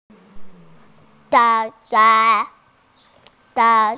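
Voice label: "tai4yang2", Mandarin Chinese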